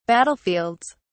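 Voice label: "battlefields", British English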